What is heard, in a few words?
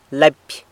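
"lapy", pencil, San Lucas Quiaviní Zapotec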